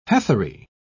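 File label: "heathery", British English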